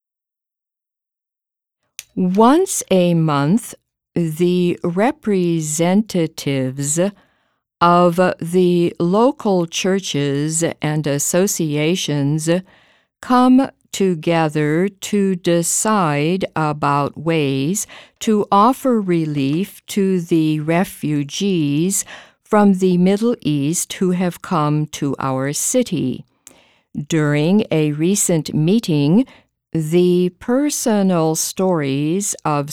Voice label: warm-up English